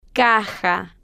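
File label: "caja", Spanish, female, slow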